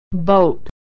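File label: "boat", American English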